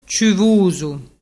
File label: "ciuvusu", dialettu